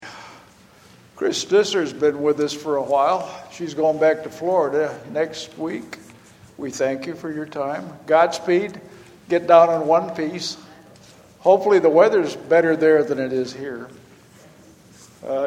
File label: Announcements